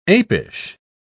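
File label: "apish", British English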